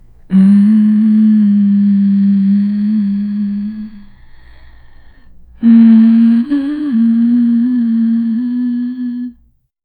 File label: a female murmur muffled